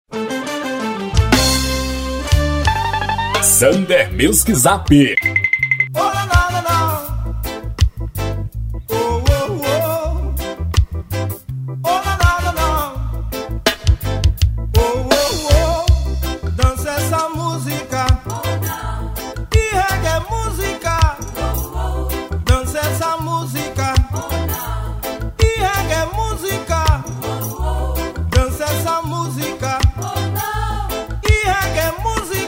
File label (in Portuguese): locutor faixa